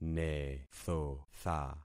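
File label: "nethotha", concatenated syllables; Affixing experiment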